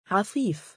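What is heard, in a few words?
"3afiif" male, Arabic; عَفِيف; アフィーフ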